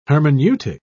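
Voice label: "hermeneutic", British English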